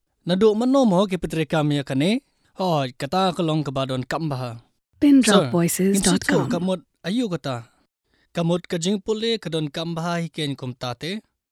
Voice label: Khasi

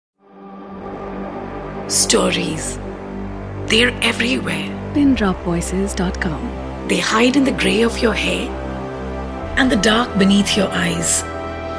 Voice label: English

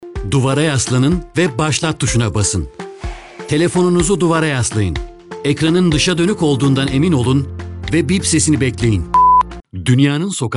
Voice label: Turkish

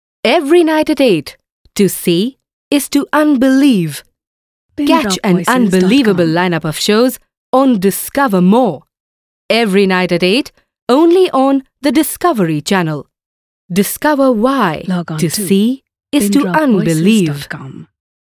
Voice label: English

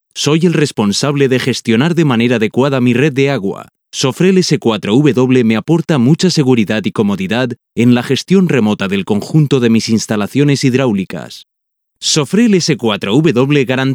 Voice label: Spanish

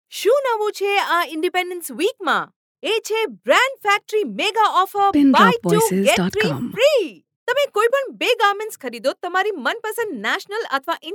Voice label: Gujarati

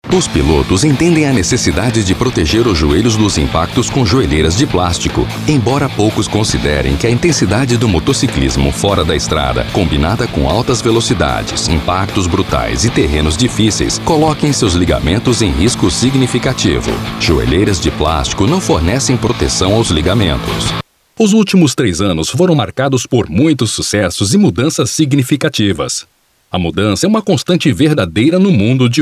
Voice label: Portuguese

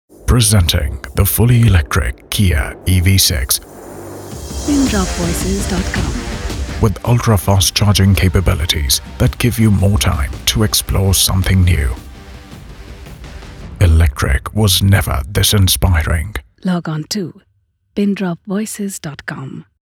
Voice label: English

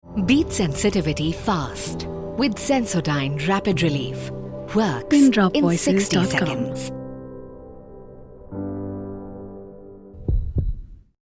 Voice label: English

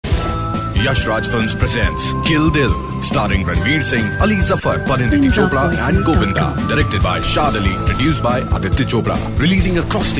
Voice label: English

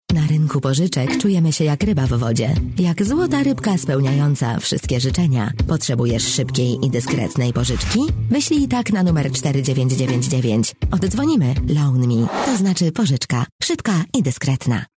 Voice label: Polish

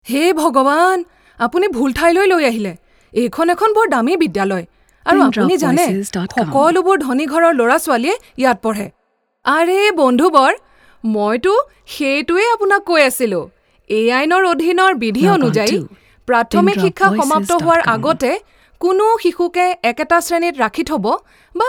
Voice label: Assamese

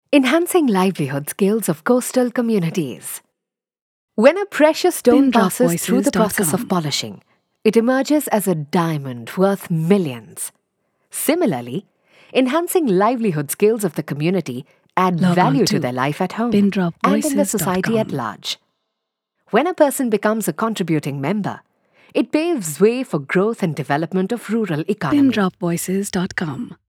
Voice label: English

